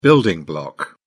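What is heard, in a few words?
"building block", British English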